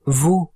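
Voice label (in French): "veau", French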